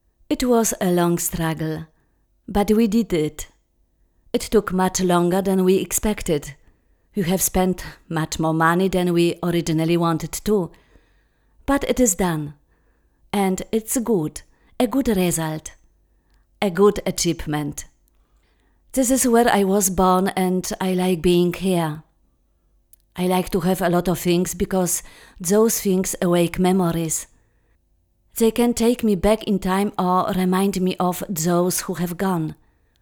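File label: English with Polish Accent, female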